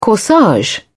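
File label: "corsage", British English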